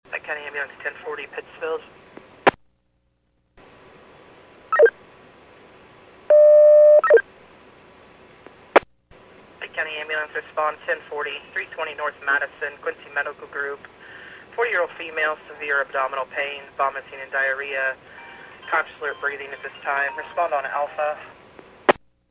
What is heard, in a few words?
PAGING